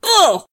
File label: Female Constable combat sound